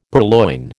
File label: "purloin", American